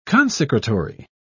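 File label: "consecratory", British English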